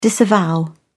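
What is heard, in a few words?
"disavow", British English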